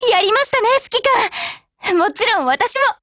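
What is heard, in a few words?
WIN, Japanese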